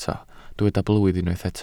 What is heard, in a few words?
"blwydd", welsh